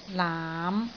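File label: "laam5", Cantonese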